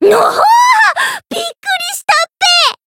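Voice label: Voice Japanese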